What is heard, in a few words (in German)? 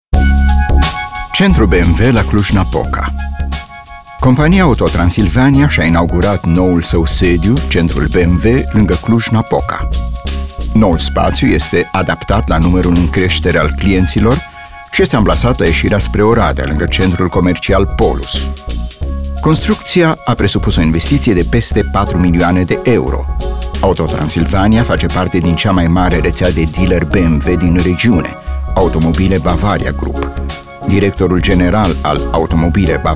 RUMAENISCH Sachtext Infomercial; BMW